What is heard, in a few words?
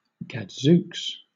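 "gadzooks", British English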